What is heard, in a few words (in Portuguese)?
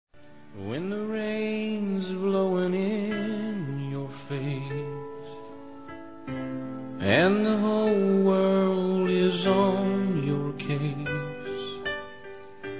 PARA AMANTES F; Dia Dos namorados; fem; Telemensagens 2017